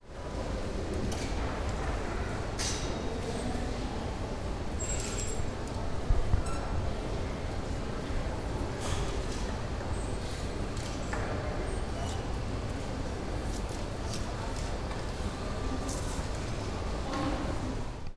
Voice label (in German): Frau Sucht Nach Kleider Im Kaufhaus